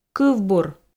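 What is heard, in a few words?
"кывбур", Komi, female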